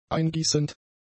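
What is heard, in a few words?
"eingießend", German; adjectives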